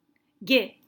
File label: "Gai", French